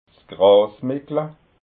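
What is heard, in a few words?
"grasmickla", Alsatian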